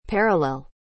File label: "parallel"